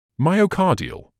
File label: "myocardial", English